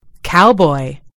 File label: "cowboy", en US; gcompris